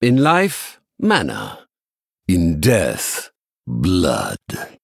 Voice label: Male Sanlayn Play